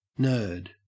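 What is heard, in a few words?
"nerd", English, Australian accent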